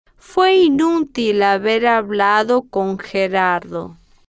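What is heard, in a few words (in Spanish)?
fue inutil haber hablado con Gerardo, Spanish, female, slow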